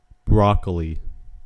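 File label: "broccoli", US English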